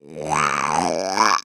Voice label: Cartoon Human Male Alien Talk; AdobeCC

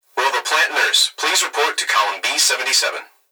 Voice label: Human Young Male Voice Plant Security Dispatch; Will The Plant Nurse Please Report To; Emergency

